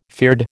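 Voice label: "fyrd", American English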